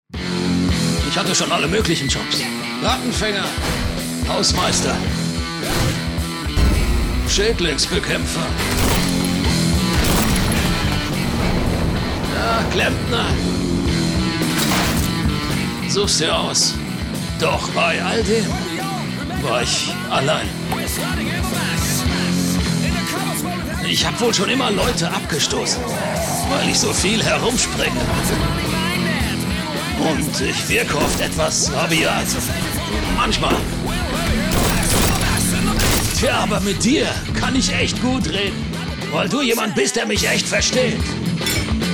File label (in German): rau aggressiv; Zane Flynt Borderlands